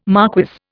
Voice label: "marquess", Indian